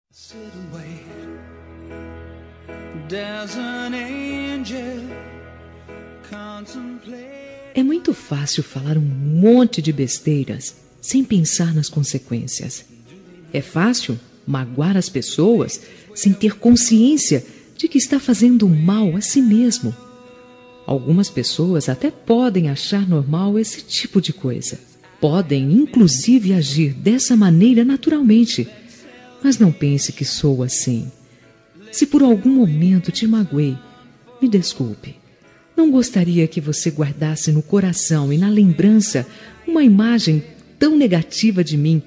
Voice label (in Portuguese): desculpa amizade fem, Reconciliação Amizade – Voz Feminina